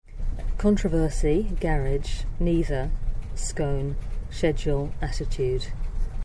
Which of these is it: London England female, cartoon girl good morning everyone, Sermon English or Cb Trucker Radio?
London England female